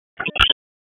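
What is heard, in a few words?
Dispatch 1, P25; wake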